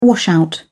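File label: "washout", British English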